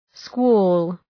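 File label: "squall", English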